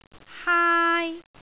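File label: "haai1", Cantonese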